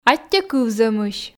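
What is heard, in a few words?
"аттьӧ кывзӧмысь", Komi, female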